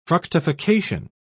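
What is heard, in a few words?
"fructification", British English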